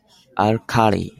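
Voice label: "alkali", Japanese, male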